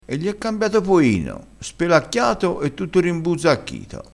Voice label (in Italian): "rimbuzzacchito", crespinese